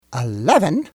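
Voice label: "eleven", English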